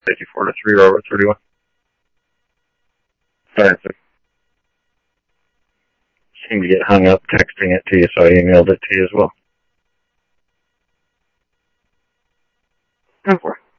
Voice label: Tempe Police, scanner audio